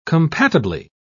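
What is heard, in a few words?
"compatibly", British English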